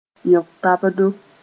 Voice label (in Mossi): "yõng-tabdo", moore